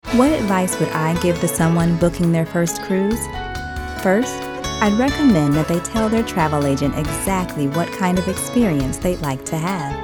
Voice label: Narrative